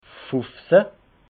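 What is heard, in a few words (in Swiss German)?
"fufzehn", Alsatian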